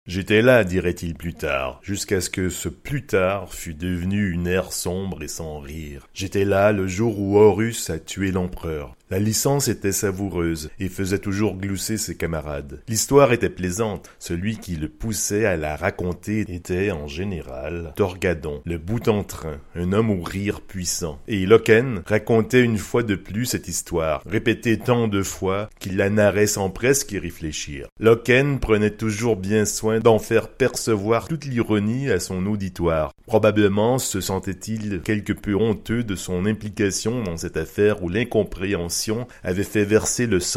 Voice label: French